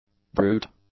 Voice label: "brute", English; adjectives